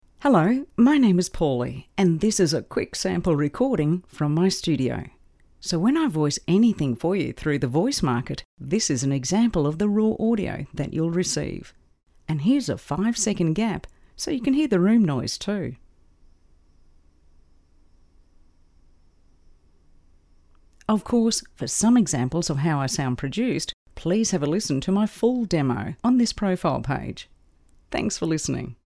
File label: Studio Sound Check